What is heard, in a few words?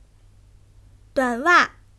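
"duan3wa4", Chinese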